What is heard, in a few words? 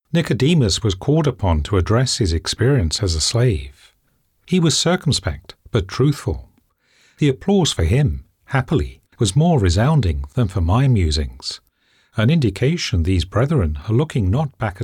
Fiction, voiceovers